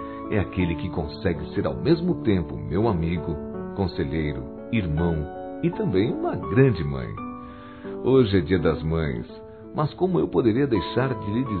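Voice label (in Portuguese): PAI VOCÊ É UMA MÃE, Masculino; Dia das Mães; Telemensagens 2017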